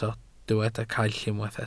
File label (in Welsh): "caill", Welsh